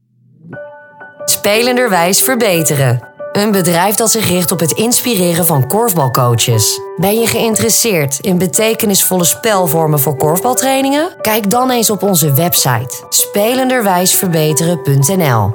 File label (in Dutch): Zakelijk